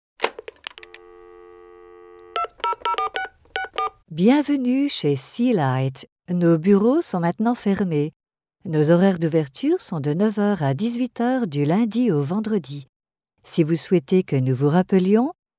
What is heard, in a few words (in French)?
French